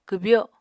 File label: "kwbyo", Apatani